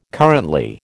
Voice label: "currently", American

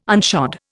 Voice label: "unshod", Indian

